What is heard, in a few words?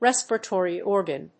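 "RESPIRATORY ORGAN", English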